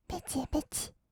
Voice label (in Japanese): "ペチペチ", kawaii voice; 1200 kawaii voice!!; 色々なワンショット